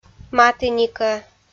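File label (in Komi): "матіникӧ", Komi-Zyrian, female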